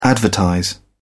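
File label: "advertise", British English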